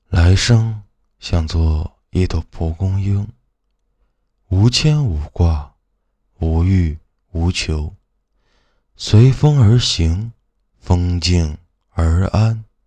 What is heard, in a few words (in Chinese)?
试音二, 男声，浑厚大气, 青年（18-35）, 普通话